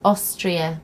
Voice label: "austria", en GB; gcompris